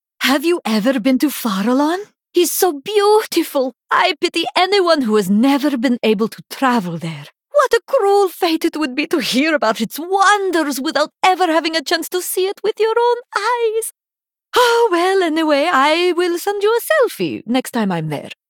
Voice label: Base Pissed; Yrel